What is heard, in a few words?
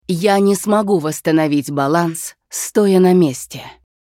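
Hero Idle Russian, Женщина; Diablo III: обзор обновления PTR 2.6.0 — озвучка некроманта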